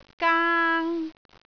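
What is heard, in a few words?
"gaang1", Cantonese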